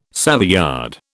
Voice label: "Savoyard", British

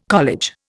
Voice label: "college", Indian

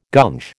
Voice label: "gunge", American

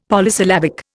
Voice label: "Polysyllabic", Indian; Dictionary

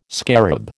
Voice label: "scarab", American

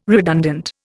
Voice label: "redundant", Indian

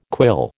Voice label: "quill", American

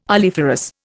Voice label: "oleiferous", Indian

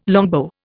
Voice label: "longbow", Indian

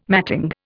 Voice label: "matting", Indian

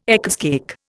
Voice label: "Eccles cake", Indian

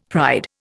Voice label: "pride", Indian; Dictionary

